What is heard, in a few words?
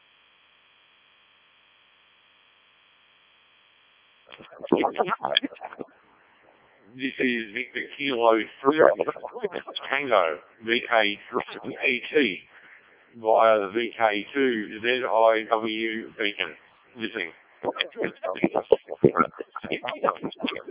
decoded speech, 7177KHz